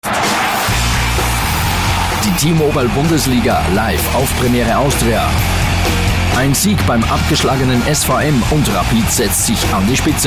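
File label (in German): Austrian Werbung Dynamisch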